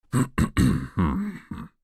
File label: old man; Fruity Burst 2